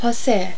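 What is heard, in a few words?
"passe", French; Virtual Casino: Secret Fantasies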